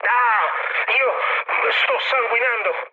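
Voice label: Italian damaged pilot mood low, speech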